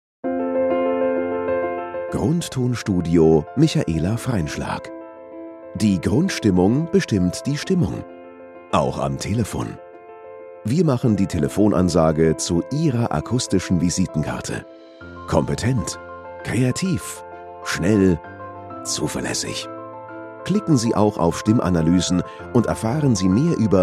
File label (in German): Telefonansagen